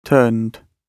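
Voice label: "turned", British English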